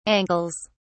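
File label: "ankles", British English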